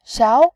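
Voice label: "xiao", Mandarin Chinese, tone 2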